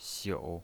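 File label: "xiu", pinyin voice, third tone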